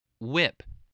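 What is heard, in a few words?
"whip", English word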